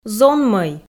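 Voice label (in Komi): "зонмӧй", female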